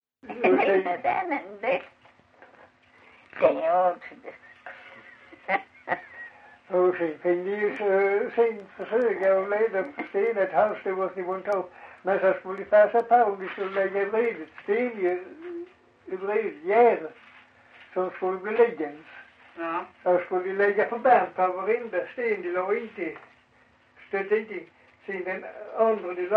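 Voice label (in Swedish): Farstorp Skåne, dialekter; Folkminnen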